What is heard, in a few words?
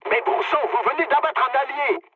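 player killed ally, mood low, French; War Thunder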